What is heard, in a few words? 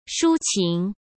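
"抒情", Mandarin Chinese; hsk; chinese flashcards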